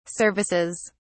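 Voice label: "services", British English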